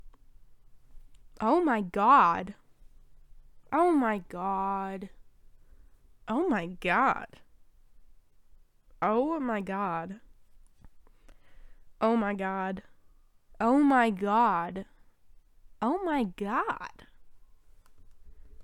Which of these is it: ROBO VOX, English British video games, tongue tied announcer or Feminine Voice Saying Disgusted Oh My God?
Feminine Voice Saying Disgusted Oh My God